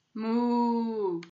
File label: "muuu", portuguese